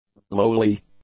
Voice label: "lowly", English; adjectives

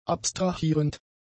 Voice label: "abstrahierend", german; adjectives

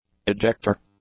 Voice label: "ejector", English; nouns

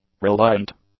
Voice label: "reliant", English; adjectives

